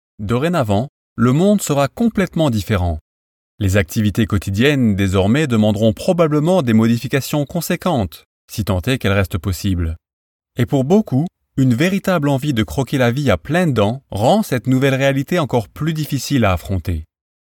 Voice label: French Voice Over introduction